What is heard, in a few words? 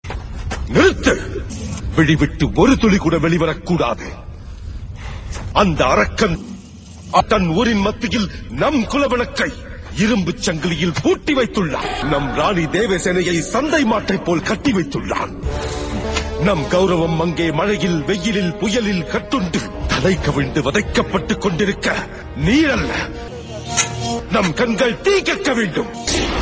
tamil movie dialogue